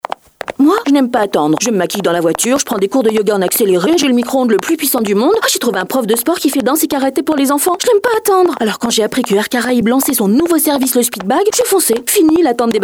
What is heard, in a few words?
spot, radiopub